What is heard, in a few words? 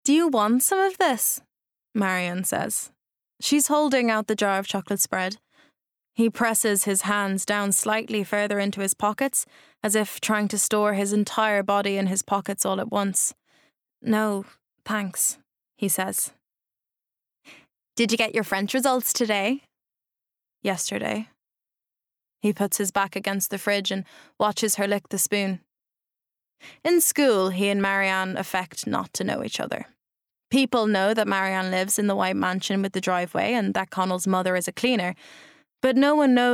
Bookread